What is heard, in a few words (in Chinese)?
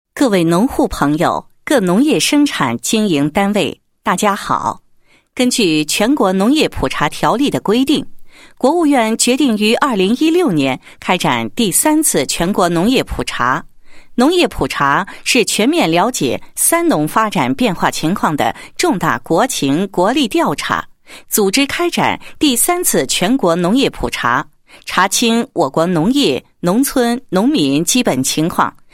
女, 政府农业工作; 蚌山区第三次全国农业普查知识宣传配音